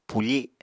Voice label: "pufi", Apatani

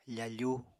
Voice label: "fafu", Apatani

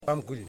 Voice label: "Legs", Yimas

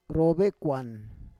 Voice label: "rovekuan", Roon

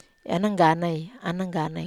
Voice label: "anangganai", wamesa

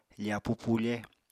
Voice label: "fapu pufe", Apatani

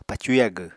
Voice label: "pacu yagw", Apatani

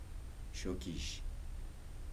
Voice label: "sock", Kibembe

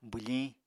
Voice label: "bwfiñ", Apatani

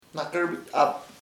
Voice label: "man's daughter su hija", chamacoco

